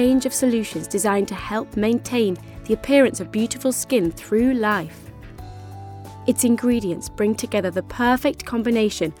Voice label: female british english beauty comercial; ideasonora